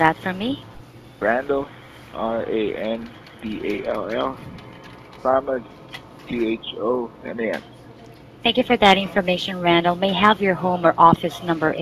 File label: Sample Order Taking Call